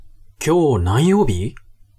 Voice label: man